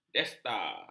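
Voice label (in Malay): "destar", Malay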